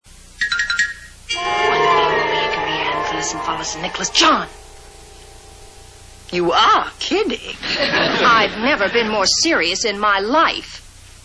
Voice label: incantation